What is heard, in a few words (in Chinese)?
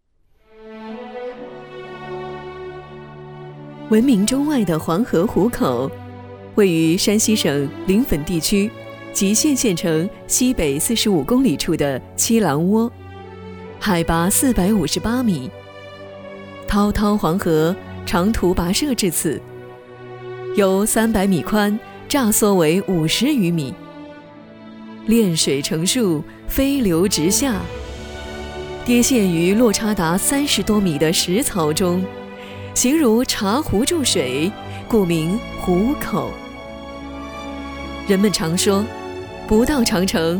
女 纪录片 黄河壶口